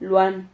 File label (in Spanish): "luan", Silabas